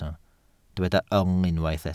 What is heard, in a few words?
"yng", Welsh